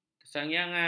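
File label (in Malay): "kesayangan", Malay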